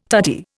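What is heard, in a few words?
"tutti", Indian English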